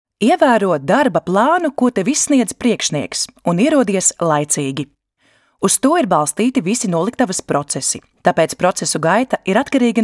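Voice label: Latvian, female, e-learning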